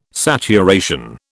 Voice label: "saturation", British